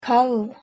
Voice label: "kald", Proto-Germanic